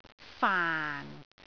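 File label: "faan4", Cantonese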